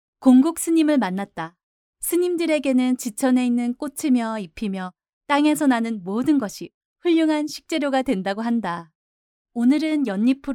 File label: female korean voice over upbeat